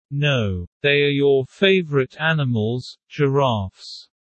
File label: low; assessment